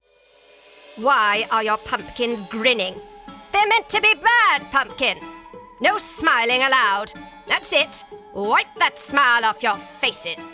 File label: Animation Reel